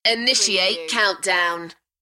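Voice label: UK female, DJ vocals; Initiate countdown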